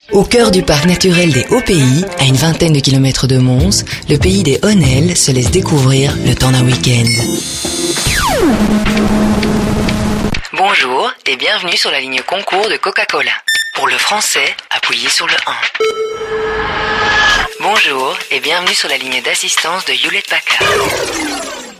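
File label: French, female, various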